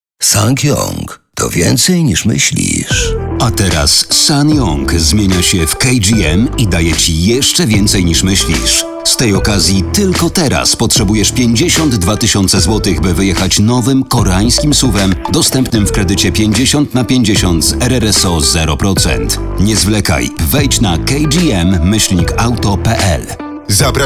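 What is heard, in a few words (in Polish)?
reklama kredyt, advert; ssangyong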